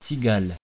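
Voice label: "cigale", French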